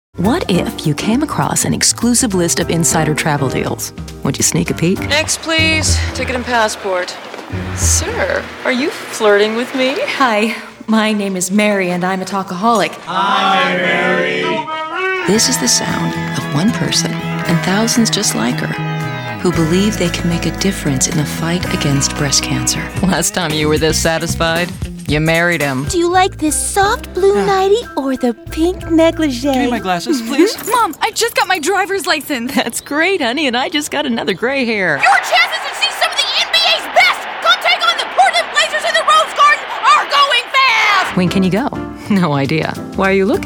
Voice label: voiceovers female